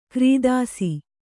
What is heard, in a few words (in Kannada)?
"ಕೀರ್ತಿಸು", Kannada